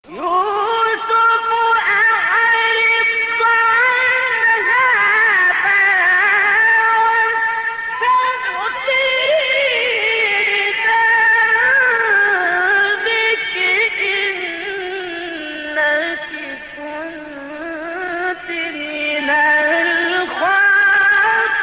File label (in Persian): تلاوت زیبای سوره یوسف; شحات الهلالی; آیه 29